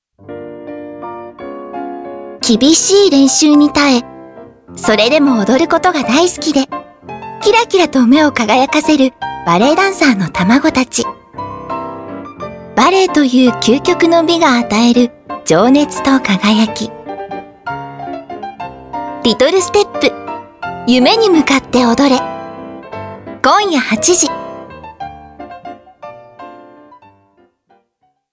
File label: narration